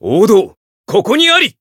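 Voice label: Voice Japanese; claude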